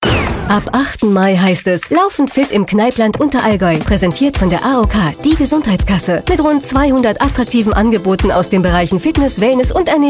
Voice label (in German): werbung; aok; Muttersprache Deutsch